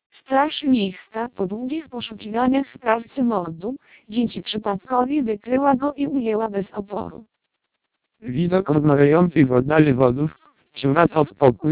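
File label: Polish, speech, Vocoder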